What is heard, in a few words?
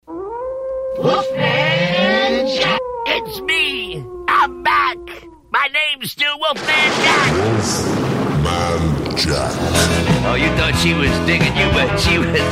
radio show promo; WOLFMAN JACK